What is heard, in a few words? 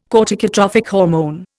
"corticotrophic hormone", Indian